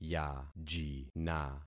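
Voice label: "yajina", concatenated syllables; Affixing experiment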